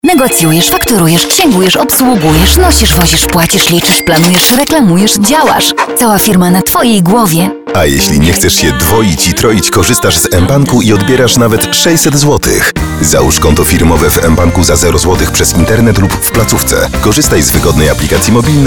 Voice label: Female VO Commercial